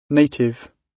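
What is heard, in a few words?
native, British; Language, linguistics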